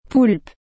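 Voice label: "poulpe", French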